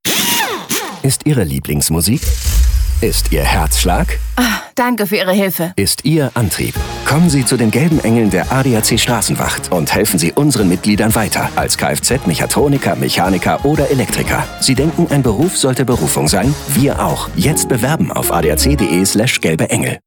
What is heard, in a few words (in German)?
Spots OFF, maenner; ADAC; Gelbe Engel